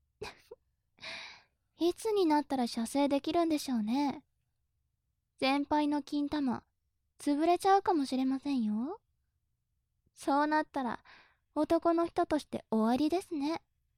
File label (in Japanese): お仕置き中音声